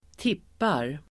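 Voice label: "tippar", Swedish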